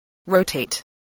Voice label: "rotate", English spelling